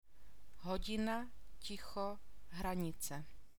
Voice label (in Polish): di ti ni przyklady, czeski alfabet; hodina, ticho, hranice; godzina, cicho, granica